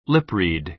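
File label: "lip-read", American English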